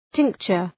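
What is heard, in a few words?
"tincture", English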